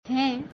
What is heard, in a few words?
"Hain", Braj Bhasha; Is